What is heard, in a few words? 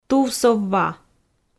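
"тувсов ва", Komi, female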